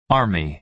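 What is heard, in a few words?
"army", American English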